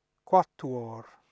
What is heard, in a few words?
"quattuor", Latin